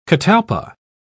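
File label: "catalpa", British English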